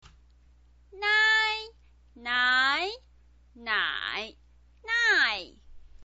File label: "nai", Chinese pinyin voice